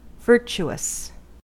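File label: "virtuous", US English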